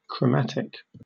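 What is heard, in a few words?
"chromatic", English, British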